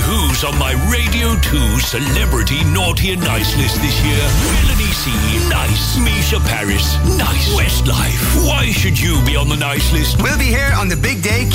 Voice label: male english the uk s voice of santa character voice; Devine Voices